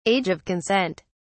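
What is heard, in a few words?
"age of consent", British English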